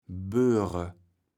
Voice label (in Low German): "böre", kaevels platt